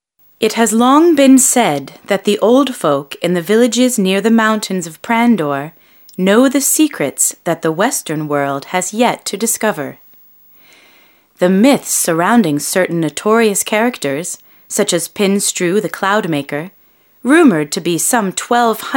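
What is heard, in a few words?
F EN documentary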